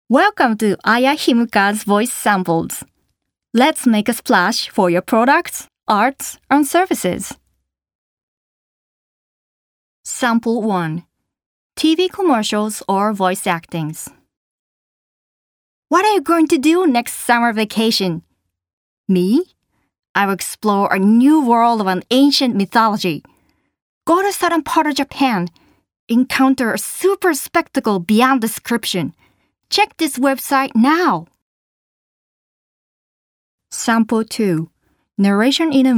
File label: english